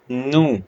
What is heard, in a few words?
short voyels arabic alphabet